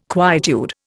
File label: "quietude", Indian